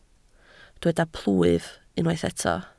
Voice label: "plwyf", Welsh